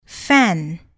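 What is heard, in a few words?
"fan", English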